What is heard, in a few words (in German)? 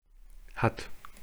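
"hat", German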